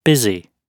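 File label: "busy", British English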